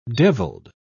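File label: "deviled", British English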